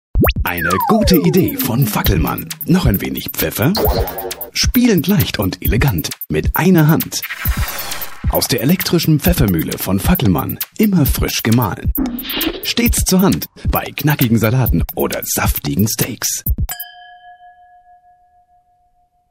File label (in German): radiospot